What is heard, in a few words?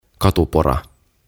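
"Katupora", Finnish; Drill; OTAVA KONSERNI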